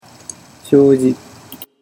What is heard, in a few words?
"shoji", Japanese